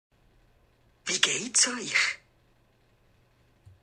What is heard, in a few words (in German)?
Wie geyts aich Bernese female